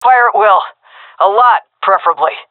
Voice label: request spend, fem; vo; agents; Source Model Packs; Counter Strike Model Pack; CS2

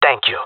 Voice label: thankful, jungle male; player vo; Counter Strike Model Pack; CS:GO

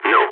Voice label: disagree, balkan; vo

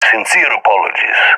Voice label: sorry, balkan; balkan epic

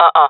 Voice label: negative, jungle fem; player vo jungle fem; Counter Strike Model Pack; CS:GO

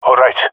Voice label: affirmation, jungle male; agents; jungle male epic

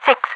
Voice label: "six", radiovoice; Half Life 2

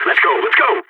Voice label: radio lets go, balkan; vo

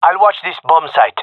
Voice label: guarding bombsite, male; vo

